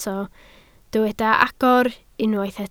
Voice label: "agor", Welsh